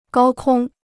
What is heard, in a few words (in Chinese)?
"高空", Chinese